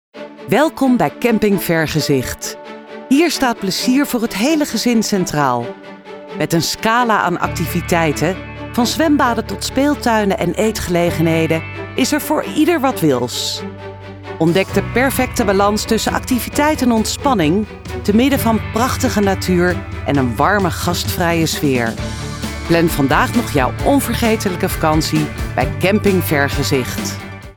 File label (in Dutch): Bedrijfsfilm, voice over; Camping Vergezicht